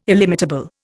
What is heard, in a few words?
"illimitable", Indian